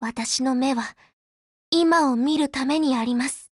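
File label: WIN, Japanese; GF Alarm; M82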